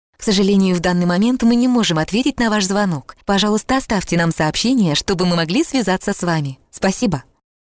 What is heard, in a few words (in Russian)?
Автоответчик